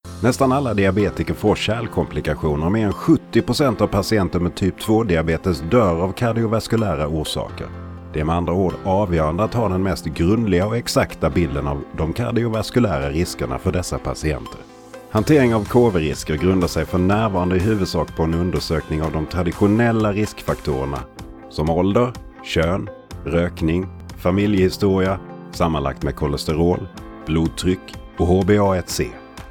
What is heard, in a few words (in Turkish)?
Isvecce Erkek; seslendirme